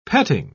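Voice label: "petting", English, British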